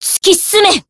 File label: rage; ff2